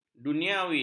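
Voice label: "duniawi", Malay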